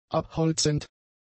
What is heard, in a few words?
"abholzend", German; adjectives